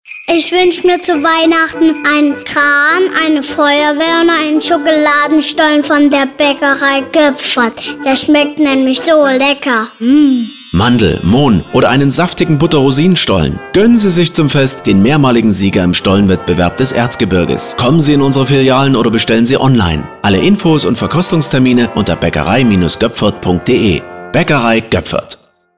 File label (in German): Funkspot Baeckerei Stollen; Baeckerei-Goepfert